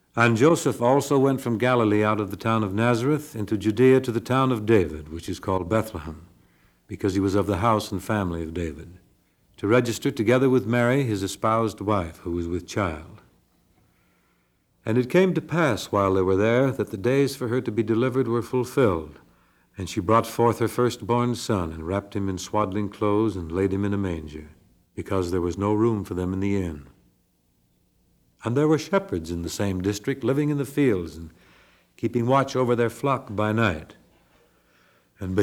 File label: Narration; Luke 24-20